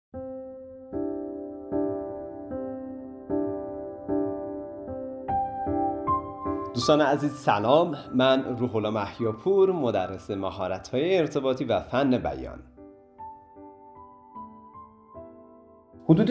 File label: childrens articulation